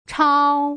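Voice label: "chao", Mandarin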